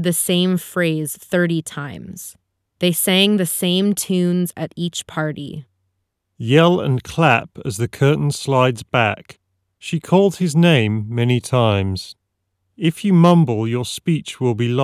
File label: ideal microphone sample; Beyerdynamic MMX100